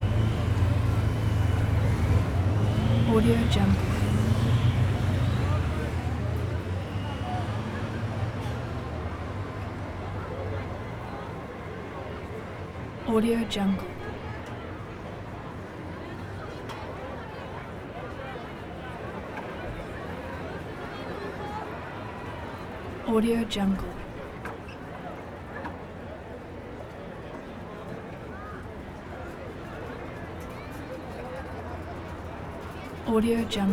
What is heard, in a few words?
crowd walla exterior active street crowd